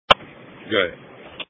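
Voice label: Police Patrol Dispatch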